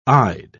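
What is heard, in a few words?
"ide", British English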